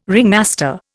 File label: "ringmaster", Indian